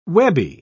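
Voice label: "webby", British English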